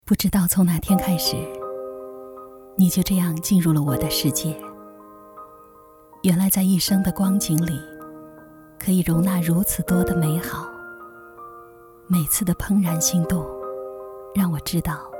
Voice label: 质感女声

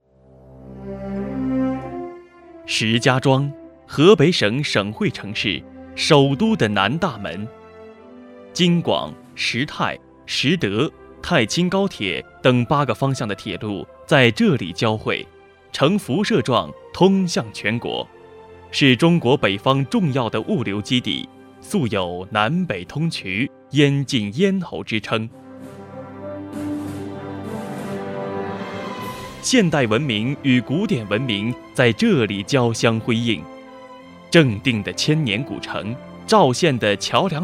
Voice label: 城市形象片; 石家庄

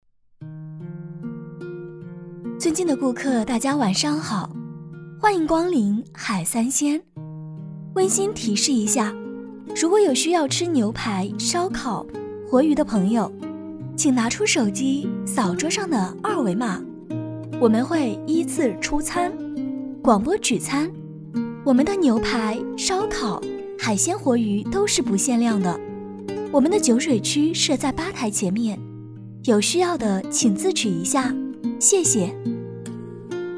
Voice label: 海三鲜 加音乐

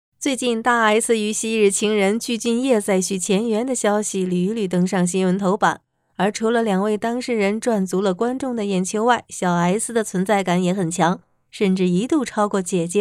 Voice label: 港娱配音

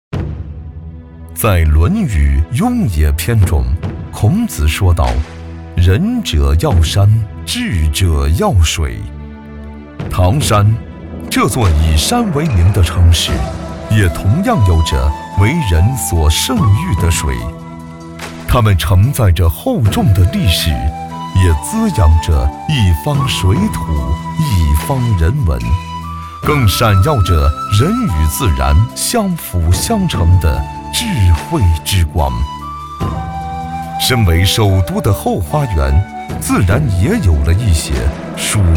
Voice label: 大气古风滦河

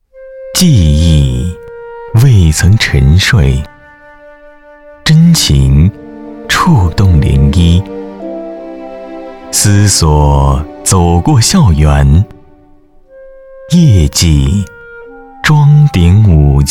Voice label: 大学专题片宣传; 清华大学

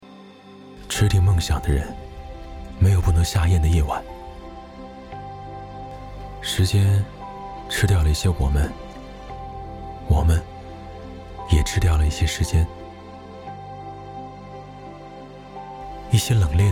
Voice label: 产品文案配音

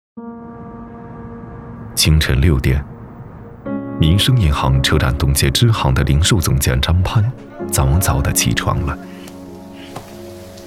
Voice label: 银行旁白男; 民生银行旁白男

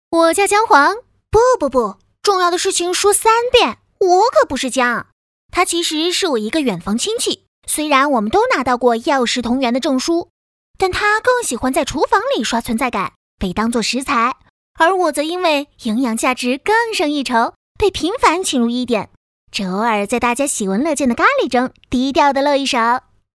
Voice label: 调侃, 有声读物&自媒体 女 青年（18-35）; 调侃姜黄素

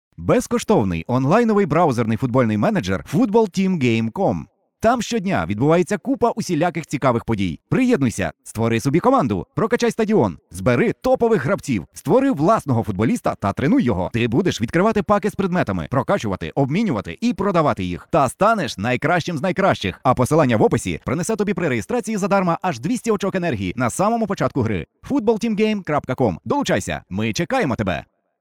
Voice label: 乌克兰语男

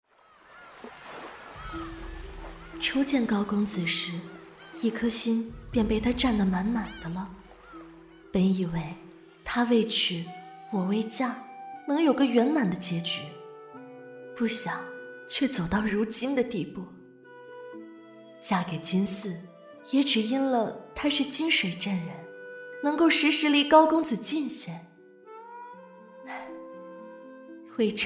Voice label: 角色独白

